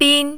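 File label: "bin" tone 1, Mandarin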